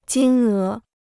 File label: "金额", Chinese